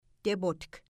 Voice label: "tepots", Mi'kmaw